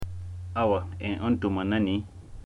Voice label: dialogue utterance